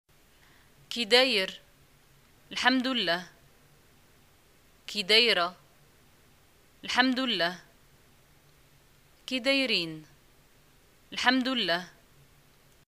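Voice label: greeting how are you, Moroccan arabic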